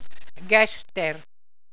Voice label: "gester", Walser